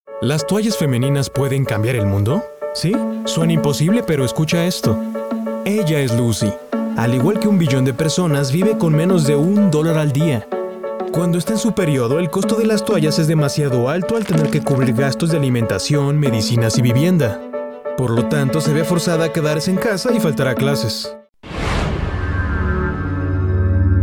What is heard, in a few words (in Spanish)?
narraciones todos